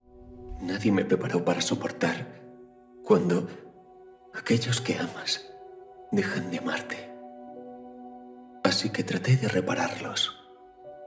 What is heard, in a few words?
acting Psychotic robot speech quiet calm